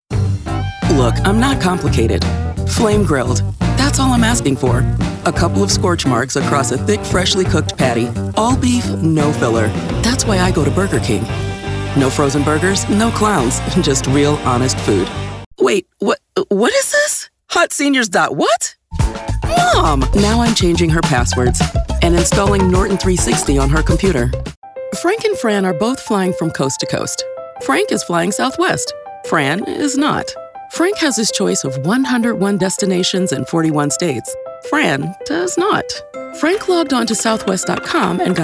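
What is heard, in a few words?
Commercial, female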